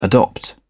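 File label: "adopt", British English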